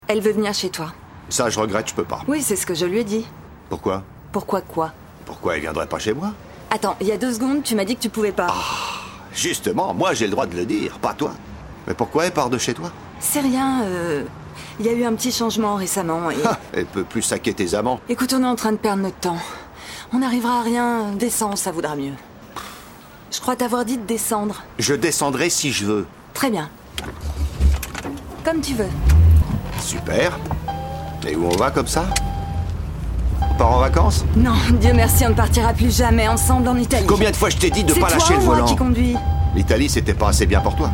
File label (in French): doublage naturelle nerveuse; Sang Chaud Et Chambre Froide; URSULA STRAUSS